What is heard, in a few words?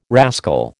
"rascal", American